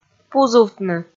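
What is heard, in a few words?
"пузувтны", Komi, female; kpv audio dict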